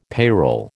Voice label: "payroll", American